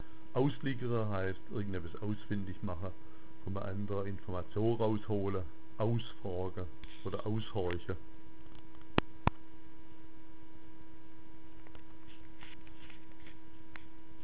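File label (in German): auslickera, schwäbisch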